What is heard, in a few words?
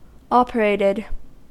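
"operated", American English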